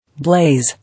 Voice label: "Blaze", English